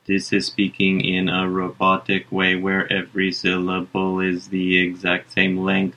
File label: robotic